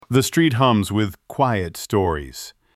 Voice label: voice sample, A young Australian male with a confident and energetic voice.; Charlie; Shot Studio